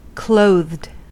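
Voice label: "clothed", US English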